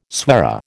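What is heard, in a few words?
"soirée", American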